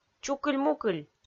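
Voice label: "чукыль-мукыль", Komi, female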